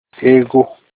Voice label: "money", Olukumi